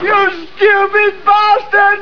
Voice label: male taunt; starsiege